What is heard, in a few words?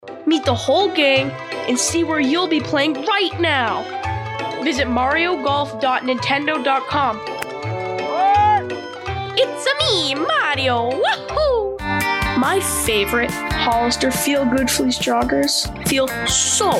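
american, American Female Experienced Warm Voice; grandson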